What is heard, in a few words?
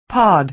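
"pod", American English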